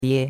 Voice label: "bie1", chinois; Cours de Chinois

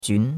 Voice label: "jun2", chinois; Cours de Chinois

